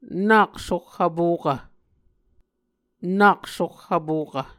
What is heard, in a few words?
"nak soh vpokv", Muscogee